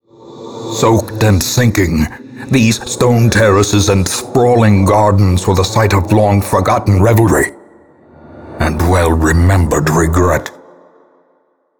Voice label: Narration; courtyard cleanse